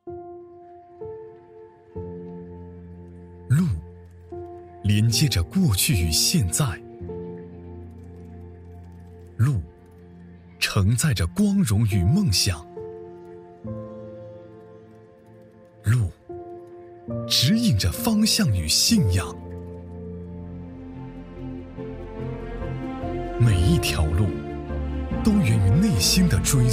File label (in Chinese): 男 党建宣传片; 芳村党建宣传片